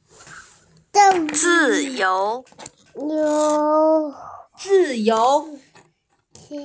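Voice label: "zi4you2", Mandarin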